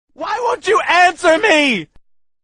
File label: answer me call tone ringtone